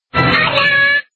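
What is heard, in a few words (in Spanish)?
"holaaa", Spanish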